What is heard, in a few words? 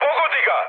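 Serbian attack position mood med, speech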